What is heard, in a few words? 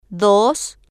"dos", spanish